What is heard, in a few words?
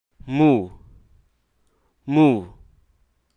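Hruso Aka; disperse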